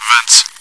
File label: chitchat; svencoop